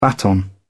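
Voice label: "baton", British English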